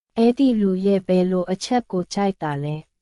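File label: Myanmar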